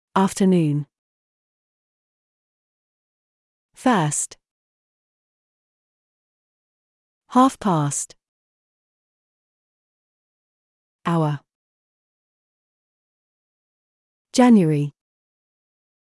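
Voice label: British; time dates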